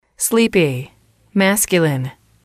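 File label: "sleepy", English, female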